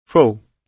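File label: "full", British English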